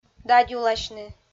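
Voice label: "дадюласьны", Komi, female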